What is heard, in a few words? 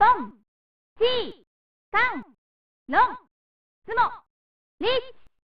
"Hun-si-kont-loonght-tsumo-neets!" (Female); pro mahjong kiwame 2; snes